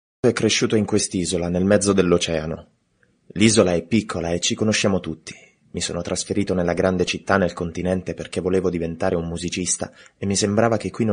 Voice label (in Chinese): 意大利语男 外语 小语种